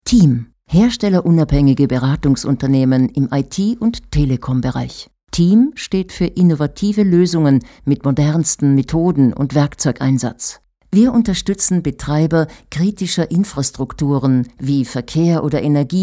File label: tutorial